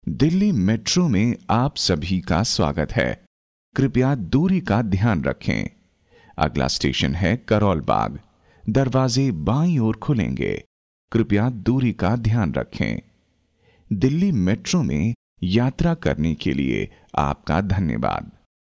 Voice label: mvo, male voice

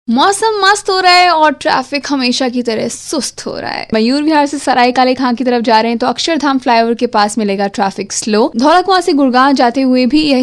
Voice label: hindi vo, female voice

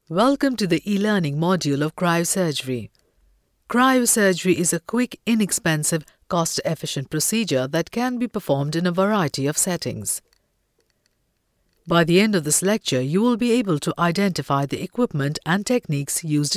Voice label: female voice

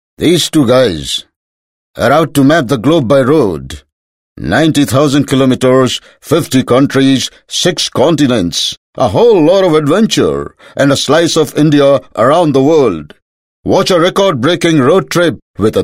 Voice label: English, male voice

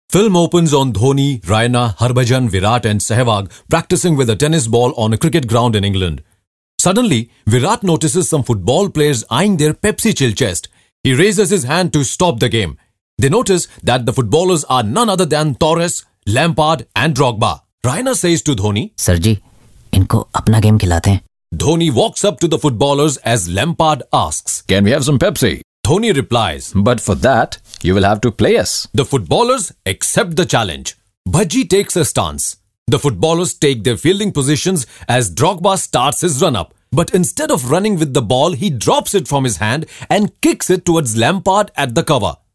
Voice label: English vo, male voice

